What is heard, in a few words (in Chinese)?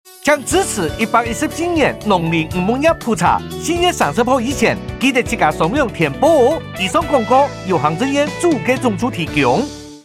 廣播 客語版; 網路填報篇